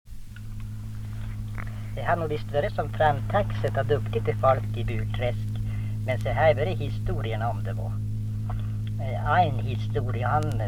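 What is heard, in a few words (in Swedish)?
Norsjö Västerbotten, dialekter; Folkminnen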